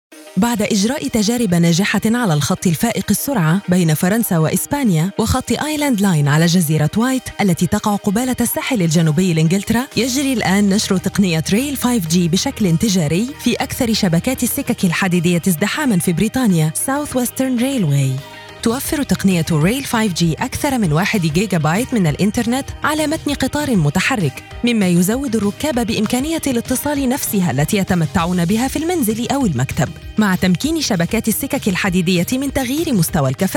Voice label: female arabic modern standard arabic rail 5g narration